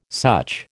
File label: "such", American